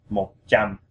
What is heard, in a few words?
100 vietnamese